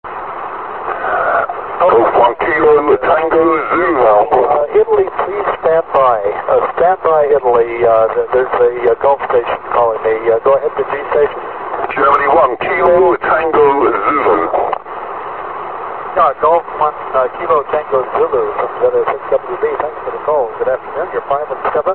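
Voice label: amateur radio contact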